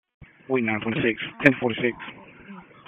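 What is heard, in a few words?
Sheriff's Office Dispatch; WSO DISP 1; wake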